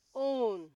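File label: "own" Nigerian Pidgin, female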